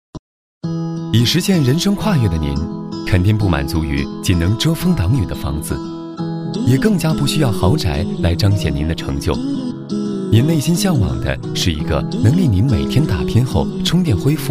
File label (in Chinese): 男 宣传片 地产; 泰和龙庭